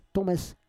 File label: "tomes", Roon